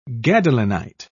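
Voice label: "gadolinite", British English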